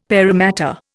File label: "paramatta", Indian; Dictionary